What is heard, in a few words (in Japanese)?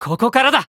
VO jp